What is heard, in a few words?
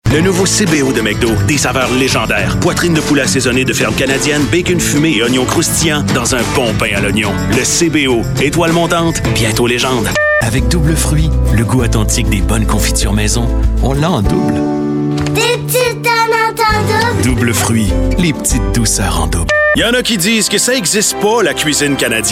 french voice over male commercial